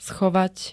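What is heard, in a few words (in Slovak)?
"schovať", Slovak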